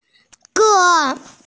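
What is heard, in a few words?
"ge1", Mandarin Chinese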